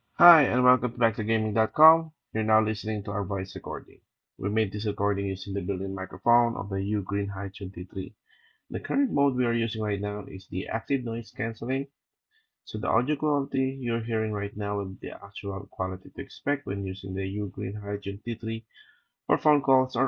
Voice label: HiTune T3 Normal Mode with fan noise ANC